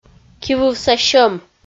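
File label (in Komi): "кивывса сьӧм", Komi, female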